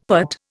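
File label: "putt", Indian English; Dictionary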